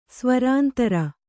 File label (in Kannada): "ಸ್ವರಾಂತರ", Kannada